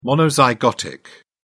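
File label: "monozygotic", British English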